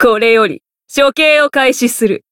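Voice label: Voice encounter, Japanese; minalca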